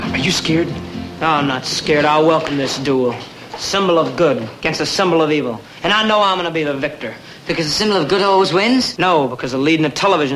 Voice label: scared